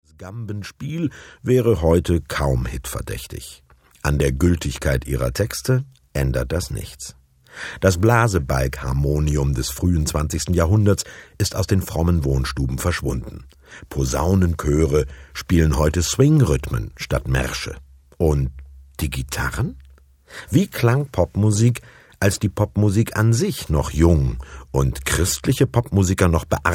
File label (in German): moderation